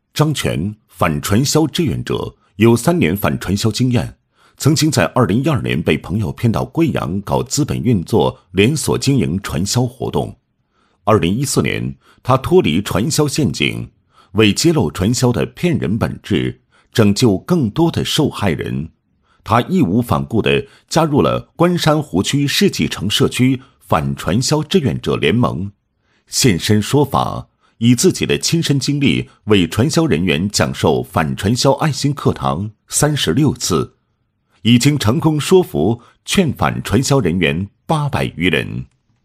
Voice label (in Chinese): 男 人物 反传销志愿者